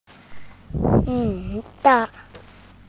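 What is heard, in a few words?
"zi3 dan4", Mandarin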